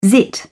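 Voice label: "zit", British English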